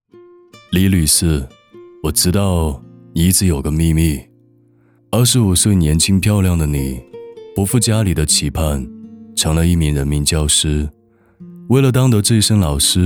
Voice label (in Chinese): 台湾腔走心独白故事感; 你一直有个秘密